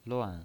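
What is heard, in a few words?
"luan", pinyin, Mandarin Chinese, fourth tone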